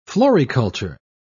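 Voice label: "floriculture", British English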